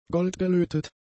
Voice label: "goldgelötet", German; adjectives

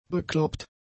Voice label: "bekloppt", German; adjectives

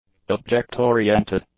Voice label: "object-oriented", english; adjectives

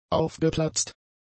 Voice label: "aufgeplatzt", German; adjectives

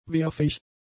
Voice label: "wehrfähig", German; adjectives

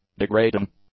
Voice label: "degrading", english; adjectives

